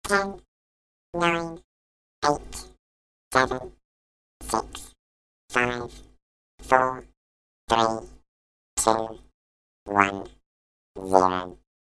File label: Helium Droid Countdown Sci-Fi, Robot; Helium Droid Countdown - Sci-Fi Dj Clip; science fiction sound effect